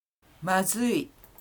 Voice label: "mazui", Japanese

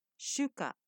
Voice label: "shuka", Japanese

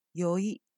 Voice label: "yoi", Japanese; intoxication

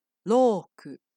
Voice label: "rouku", Japanese

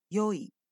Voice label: "yoi", Japanese; good

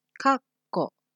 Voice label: "kakko", Japanese

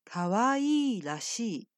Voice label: "kawaii rashii", Japanese

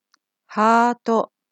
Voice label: "haato", Japanese

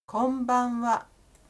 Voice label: "konbanwa", Japanese

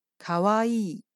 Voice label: "kawaii", Japanese

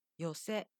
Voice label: "寄席", Japanese

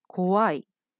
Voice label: "kowai", Japanese

